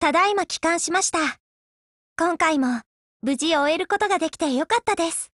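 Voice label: OPERATION OVER Japanese, Voice; GF Alarm; FAMAS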